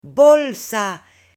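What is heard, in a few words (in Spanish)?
"bolsa" Spanish, female; UTENSILIOS; AppLenguaje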